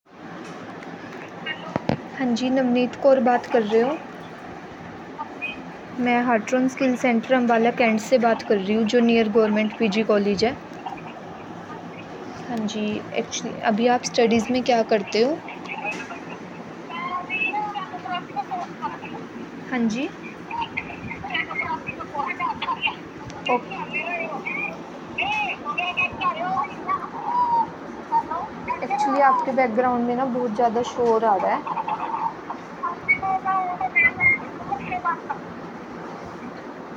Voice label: call recording